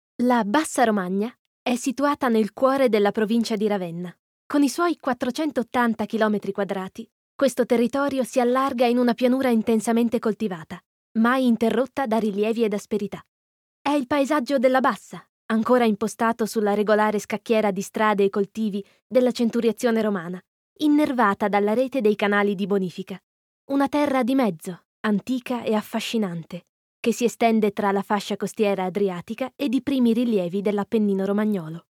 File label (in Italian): ITA Documentario